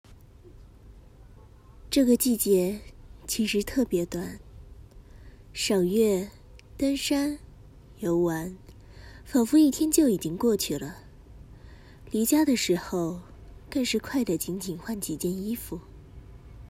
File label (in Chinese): 少御